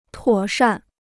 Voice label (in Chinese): "妥善", Chinese